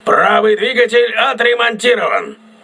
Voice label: voice message right engine repaired, crew ships russian